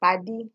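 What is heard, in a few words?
"paddy", Nigerian Pidgin, female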